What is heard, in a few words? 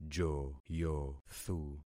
"joyothu", concat syllables; Affixing experiment